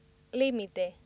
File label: "limite", accent; laboratori fonetica; practiques accent